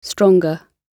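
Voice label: "stronger", British English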